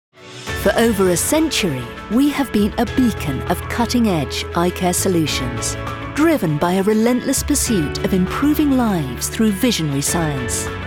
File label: english british promos